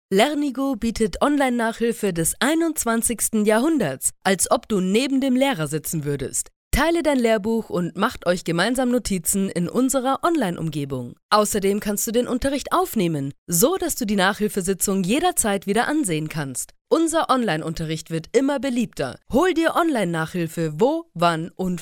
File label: German female